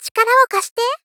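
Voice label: Gentle, Help Me, "Chikara wo kashite", Japanese female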